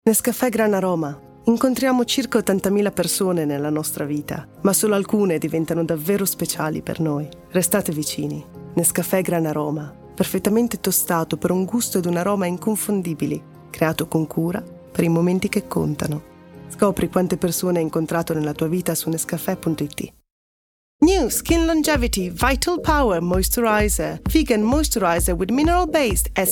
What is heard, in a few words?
Commercial; IT; GoLocalise